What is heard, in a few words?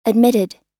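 "admitted", American English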